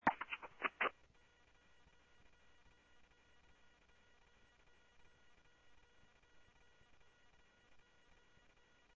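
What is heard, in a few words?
Sheriff West County, scanner audio trunk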